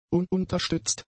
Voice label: "ununterstützt", German; adjectives